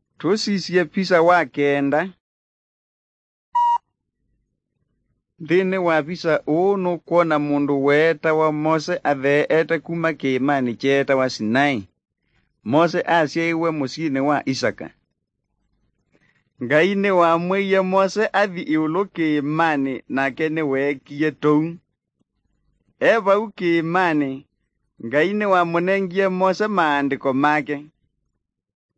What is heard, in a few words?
kikamba drama; good news; bible